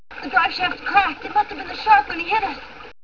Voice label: zombie